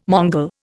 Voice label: "Mongol", Indian